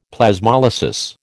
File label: "Plasmolysis", American